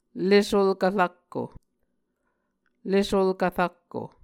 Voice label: "le solkv rakko", Muscogee